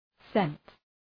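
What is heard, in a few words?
"scent", English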